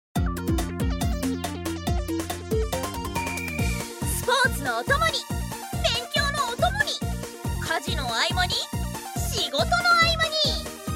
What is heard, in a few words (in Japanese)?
CM Nareeshon Youki